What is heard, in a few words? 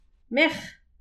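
"merch", Breton; petit precis de prononciation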